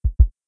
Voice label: Panic Loud; LifeSafer LowHealthWarning